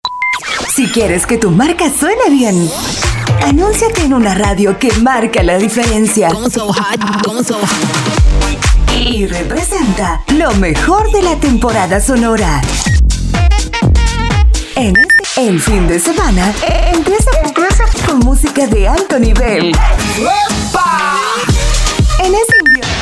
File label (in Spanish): Ambient FEM; invierno 2025